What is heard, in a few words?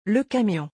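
"le camion", French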